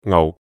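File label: "ngau4", Cantonese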